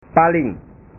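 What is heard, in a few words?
"paling", Indonesian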